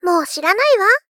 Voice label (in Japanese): Gentle Dislike Mou shiranai wa